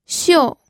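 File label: "xiu4", Mandarin Chinese pinyin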